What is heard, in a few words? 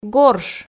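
"горш", Komi, female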